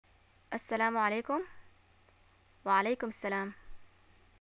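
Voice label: greeting hi and response, Yemeni Arabic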